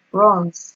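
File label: "runs", Nigerian Pidgin, female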